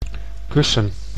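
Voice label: "kussen", Dutch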